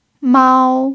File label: "mao1", chinese pinyin